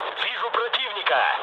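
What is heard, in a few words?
Russian enemy detected mood med, speech; War Thunder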